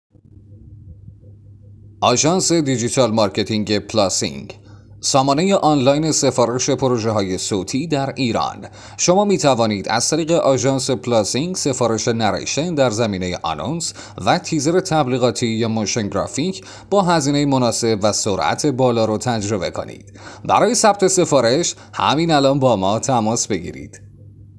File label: afghan narrator